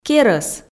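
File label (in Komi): "керӧс", Komi, female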